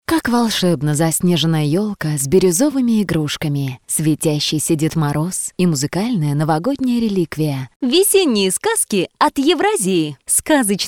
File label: Rus